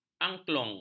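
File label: "angklung", Malay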